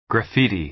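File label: "graffiti", American English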